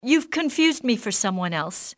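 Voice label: confused, civilian, english, female; XBOX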